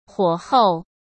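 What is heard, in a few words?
"火候", Mandarin Chinese; hsk; chinese-flashcards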